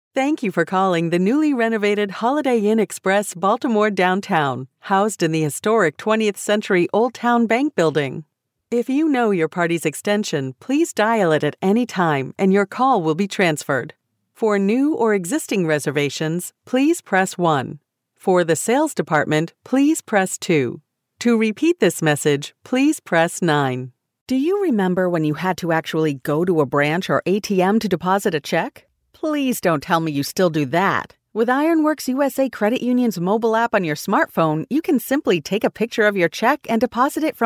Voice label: american voice telephone